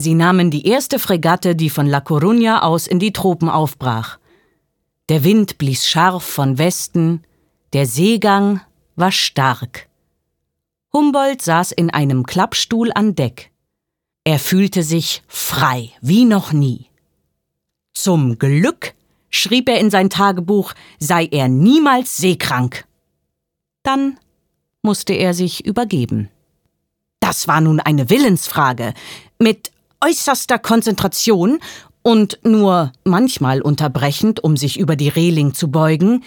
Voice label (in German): Hoerbuch